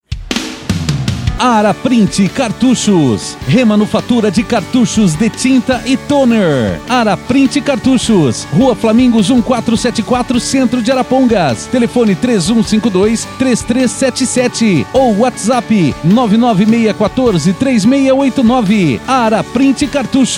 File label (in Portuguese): Comercial; Araprint Cartuchos